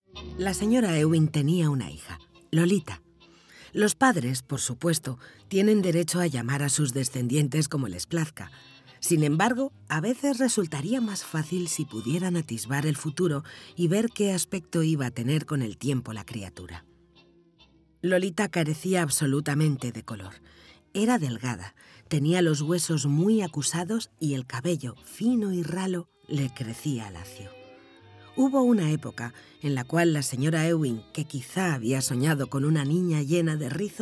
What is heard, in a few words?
spanish narration